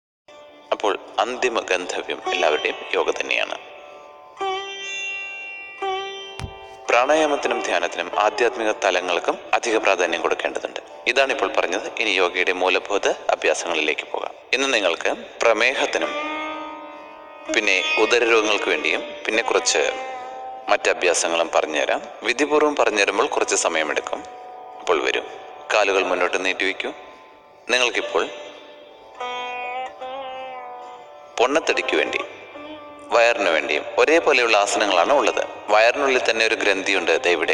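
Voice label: Narration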